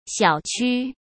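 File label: "小区", Mandarin Chinese; chinese-flashcards; hsk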